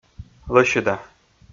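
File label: "лӧсьыда", Komi, male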